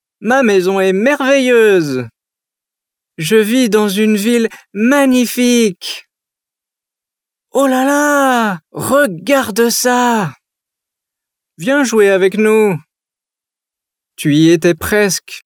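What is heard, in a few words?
French male children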